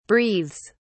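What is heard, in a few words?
"breathes", British English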